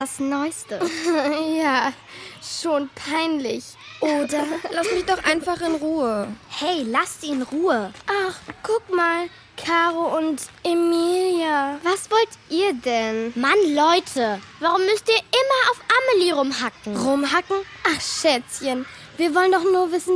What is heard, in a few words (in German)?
Dialog